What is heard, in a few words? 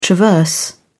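"traverse", British English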